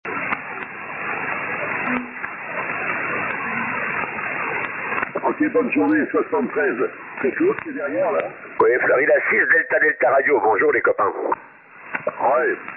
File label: 14 MHz